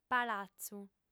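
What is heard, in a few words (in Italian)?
"palatzu", lingua sarda, fem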